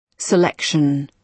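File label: "selection", British English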